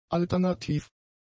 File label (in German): "alternativ", German; adjectives